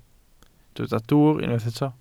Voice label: "dwwr", Welsh